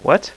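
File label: "what", male; Web Clip Empire 150,000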